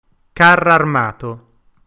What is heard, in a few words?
"carrarmato", Italian